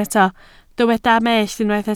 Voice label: "mellt", Welsh